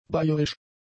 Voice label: "bayerisch", German; adjectives

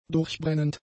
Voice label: "durchbrennend", German; adjectives

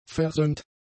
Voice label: "versöhnt", german; adjectives

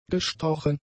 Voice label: "gestochen", german; adjectives

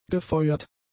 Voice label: "gefeuert", German; adjectives

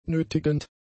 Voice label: "nötigend", German; adjectives

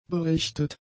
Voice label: "berichtet", German; adjectives

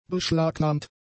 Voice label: "beschlagnahmt", German; adjectives

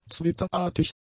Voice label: "zwitterartig", German; adjectives

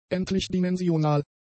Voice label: "endlich-dimensional", german; adjectives

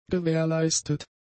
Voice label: "gewährleistet", german; adjectives

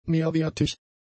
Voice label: "mehrwertig", German; adjectives

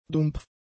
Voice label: "dumpf", German; adjectives

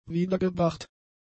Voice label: "wiedergebracht", German; adjectives

